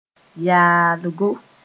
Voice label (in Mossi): "yaalgo", moore; annoying